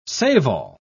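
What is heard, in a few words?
"save-all", English, British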